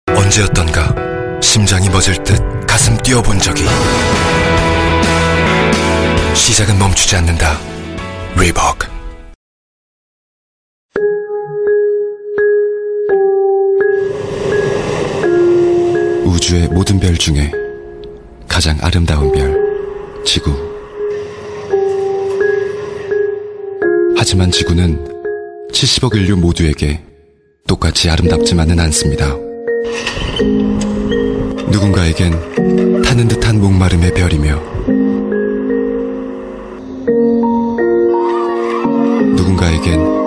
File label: Korean Thoughtful Read, korean male; voice sample